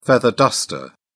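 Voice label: "feather duster", British English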